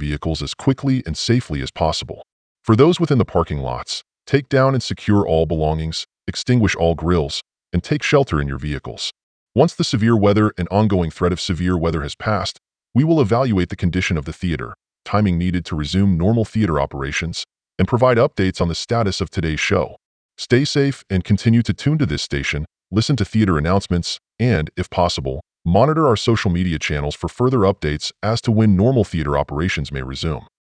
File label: Evacuating Theatre Message; Somerset